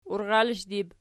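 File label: "urralishdu" plural, Archi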